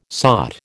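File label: "sought", American English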